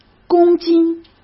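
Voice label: "公斤", Mandarin Chinese; chinese flashcards; hsk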